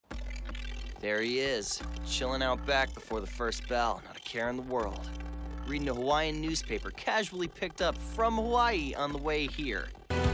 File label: Character